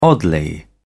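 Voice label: "oddly", English, British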